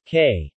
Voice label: "K", male